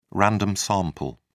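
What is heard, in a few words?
"random sample", British English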